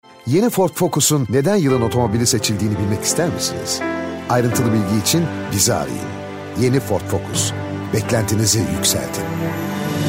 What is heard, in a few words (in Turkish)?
Reklam